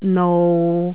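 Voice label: "nou6", Cantonese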